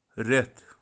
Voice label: "reth", month, Kashmiri; kashmiri dictionary